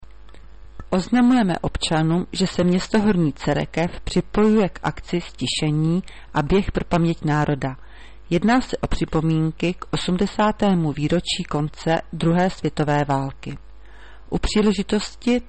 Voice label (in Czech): hlaseni, rozhlas